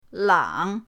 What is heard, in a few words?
"lang3", pinyin